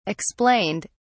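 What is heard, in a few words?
"explained", English, British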